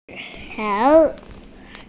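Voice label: "nv3hai2", female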